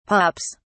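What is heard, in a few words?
"pups", British English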